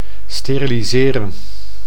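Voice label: "steriliseren", Dutch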